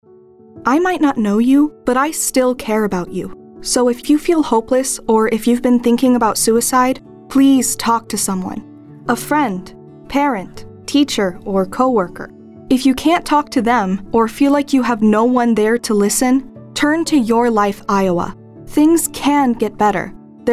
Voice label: Radio; Produced :30 Radio with YLI Tag Only Spot; There Are People Who Care; Your Life Iowa; IDPH